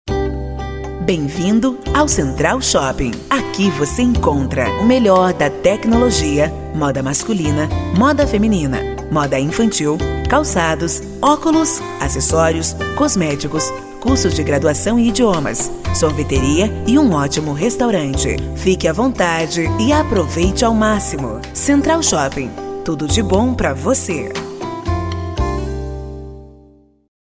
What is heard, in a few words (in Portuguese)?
spot; boas vidas